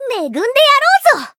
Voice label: Voice heal, jp; otoha